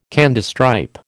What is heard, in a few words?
"Candystripe", American